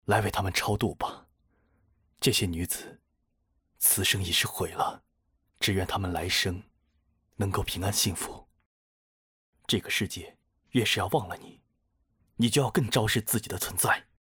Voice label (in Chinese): 角色 师兄, putonghua nan